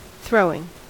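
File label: "throwing", US English; pronunciations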